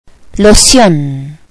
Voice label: "lotion", spanish